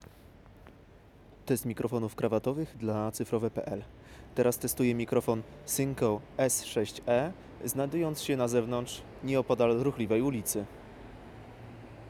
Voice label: outdoor